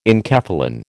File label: "enkephalin", American